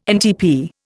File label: "NTP", Indian